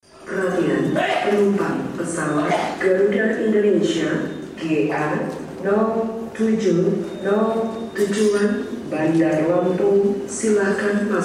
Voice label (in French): Annonce Jakarta Airport